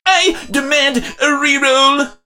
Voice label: meeple hurt

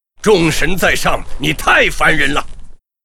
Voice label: pissed, zh; Knight

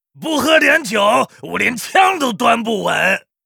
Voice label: Rifleman pissed, 矮人火枪手; 魔兽争霸3

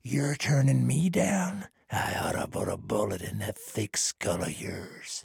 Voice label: trader male dislike quest declined

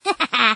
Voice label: fem fire vo

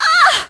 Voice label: hurt; piper

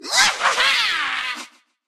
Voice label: start vo evil

